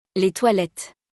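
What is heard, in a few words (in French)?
"Les toilettes", French; vocabulaire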